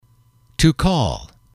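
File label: "to call", English, male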